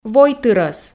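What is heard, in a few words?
"войтырӧс", Komi, female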